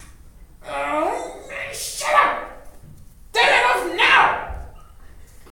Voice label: rage

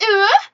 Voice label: cute uwu

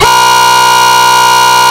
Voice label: hey earape

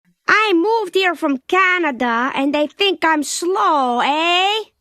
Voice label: simpsons canada slow eh

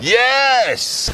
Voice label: happy yes

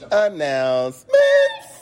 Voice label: anouncements

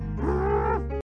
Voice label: angry; handy

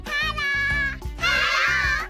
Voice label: anime hello

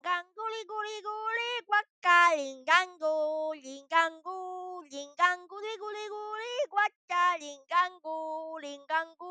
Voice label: kid linganguli

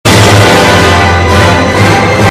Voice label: british table

